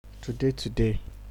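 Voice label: "today today", Nigerian Pidgin, male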